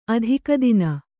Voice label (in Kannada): "ಅತ್ತಿಗೆತನ", Kannada